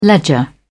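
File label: "ledger", British English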